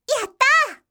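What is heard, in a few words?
"yattaa", Japanese, yorokobu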